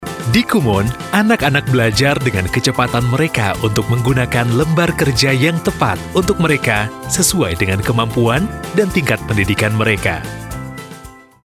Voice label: Indo casual